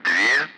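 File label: Speech, Russian; Allied Flight; Digits; DCS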